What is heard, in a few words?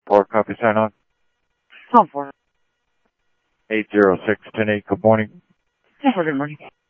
Scottsdale Police, scanner audio; H-Scottsdale